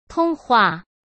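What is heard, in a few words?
"通话", Mandarin Chinese; hsk; chinese flashcards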